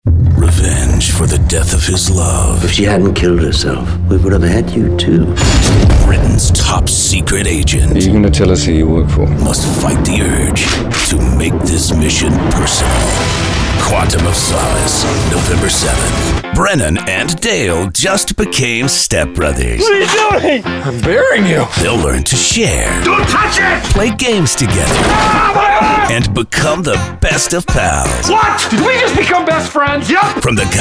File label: trailer